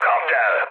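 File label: attack position, mood med, Hungarian; War Thunder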